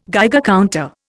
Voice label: "Geiger counter", Indian